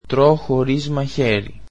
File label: greek I eat without a knife